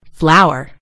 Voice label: "flour", en GB; gcompris